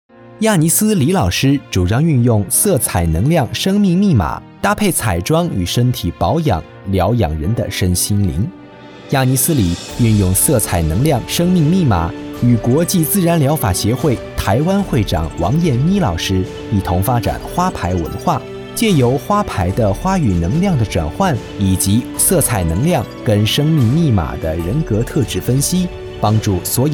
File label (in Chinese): 男 宣传片 台湾企业